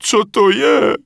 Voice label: Peasant What; wowpreklad; czwow

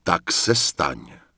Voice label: Night Elf Male Standard NPC Farewell; wowpreklad; czwow

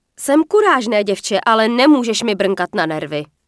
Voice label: Night Elf Female You're Welcome; wowpreklad; czwow; NightElfVocalFemale

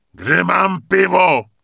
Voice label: Rifleman Pissed; wowpreklad; czwow

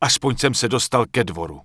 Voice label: Human Male Merchant Start Shopping; wowpreklad; czwow; NPC

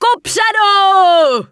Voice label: Night Elf Female Priestess NPC Farewell; wowpreklad; czwow

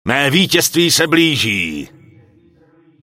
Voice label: Night Elf Male Congratulations; wowpreklad; czwow; NightElfVocalMale

